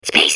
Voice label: babble; Portal 2